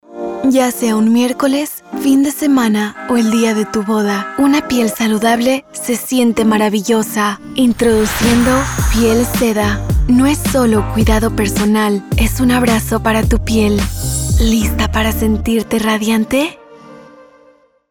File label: ENG LATAM Sophisticated Elegant; Piel Seda